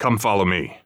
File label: radio follow me; vo; Counter Strike Model Pack; CS:GO; phoenix coop